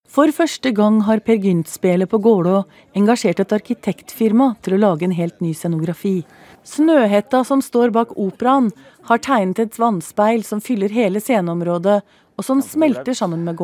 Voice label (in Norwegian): Spraak betoning; NRK Dagsrevyen